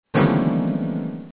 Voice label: shocked; animal crossing